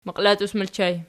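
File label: "maqilatus" ergative plural, Archi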